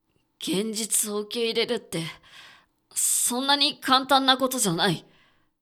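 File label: male; 現実を受け入れるって、そんなに簡単なことじゃない。; クール; 低音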